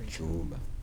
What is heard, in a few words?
"sun", Kibembe